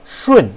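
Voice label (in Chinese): "shun", Chinese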